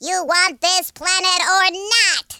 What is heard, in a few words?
hurry, speech, Alien; Worms3D